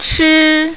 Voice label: "chi1", mandarin, female